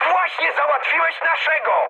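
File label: Polish player killed ally mood high; speech; War Thunder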